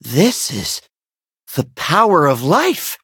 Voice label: levelup, en; Cookie Run

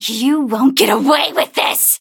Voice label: hit, en; cookie run

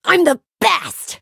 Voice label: level up, en

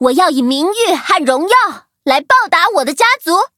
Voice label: upgrade, zh-hant

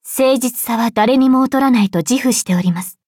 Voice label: upgrade, ja; cookie run

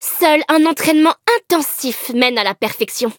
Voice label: levelup, fr; Cookie Run

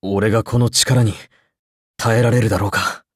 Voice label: level up, ja; cookie run

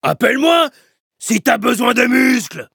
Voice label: levelup, fr; cookierunwiki

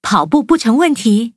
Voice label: loading, zh-hant; Cookie Run

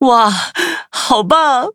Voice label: victory, zh-hant

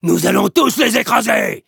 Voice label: victory, fr; cookie run

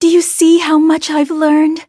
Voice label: levelup, en; Cookie Run

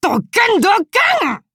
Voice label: upgrade, ja; cookie run

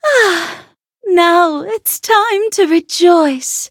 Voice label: victory, en; cookie run

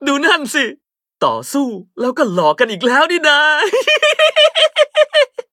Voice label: level up, th; cookierunwiki

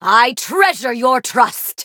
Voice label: levelup en; cookie run

